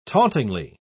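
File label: "tauntingly", British English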